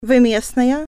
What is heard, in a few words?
are you from around here female, russian